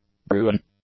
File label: "bruin", English; nouns